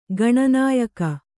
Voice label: "ಗಾಣನಾಯಕಿ", Kannada

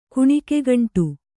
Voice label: "ಕುಣಿಕೆಗಂಟು", Kannada

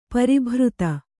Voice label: "ಪ್ರಭೃತಿ", Kannada

